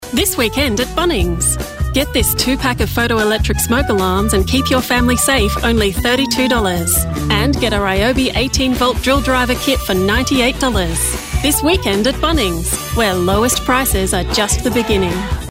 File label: Ad